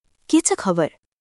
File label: Nepali, basic phrases